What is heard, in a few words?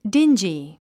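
"dingy", English word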